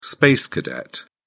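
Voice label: "space cadet", English, British English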